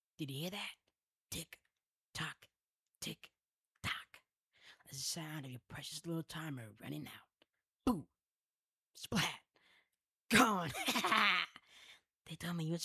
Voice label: Crazy villain